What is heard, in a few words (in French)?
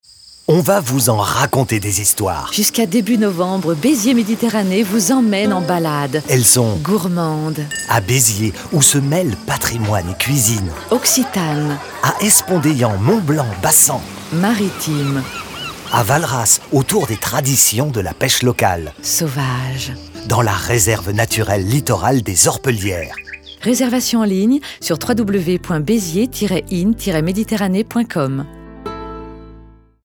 spot radio; beziers med; fb herault